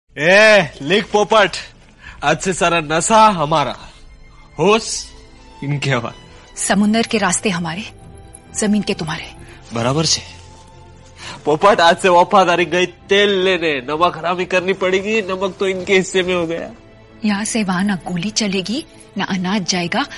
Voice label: Dialogue Ringtone; Ram Leela